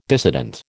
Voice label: "dissident", American